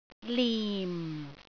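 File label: "lim4", Cantonese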